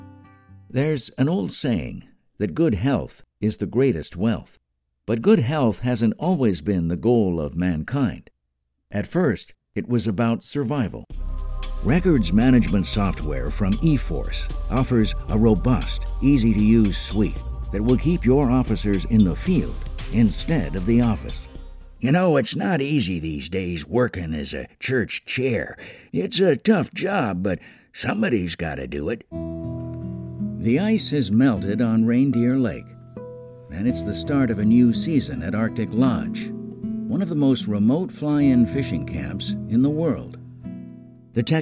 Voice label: English CAN M; accent of canada

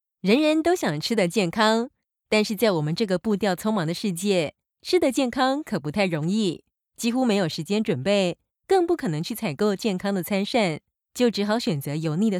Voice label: Taiwanese Mandarin F

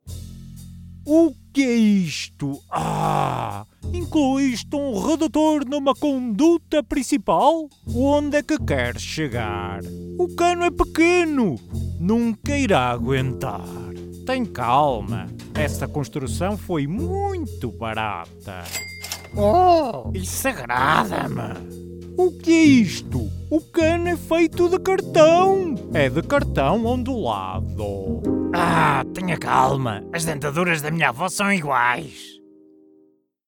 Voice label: Portuguese M Character

